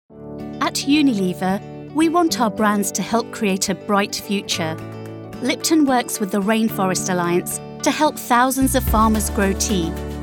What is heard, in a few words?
Corporate explainer video; Unilever